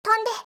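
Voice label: "tonde", Japanese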